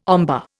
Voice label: "ombre", Indian

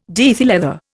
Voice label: "diethyl ether", Indian

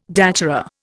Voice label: "datura", Indian

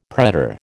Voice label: "pretor", American

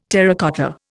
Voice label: "terracotta", Indian

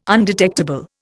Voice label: "undetectable", Indian

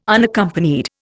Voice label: "Unaccompanied", Indian

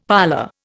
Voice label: "parlour", Indian; Dictionary

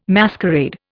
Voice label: "masquerade", Indian

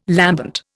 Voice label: "lambent", Indian

